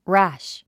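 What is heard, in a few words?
"rash", English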